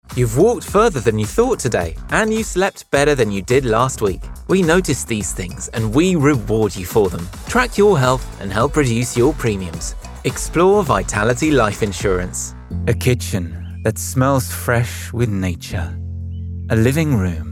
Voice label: Commercial